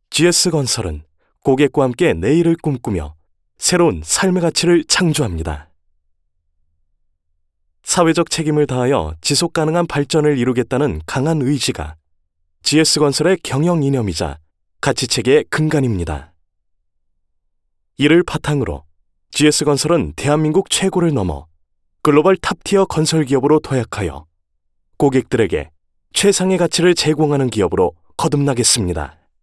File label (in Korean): 내레이션 따뜻하고 희망적인